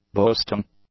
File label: "boasting", English; adjectives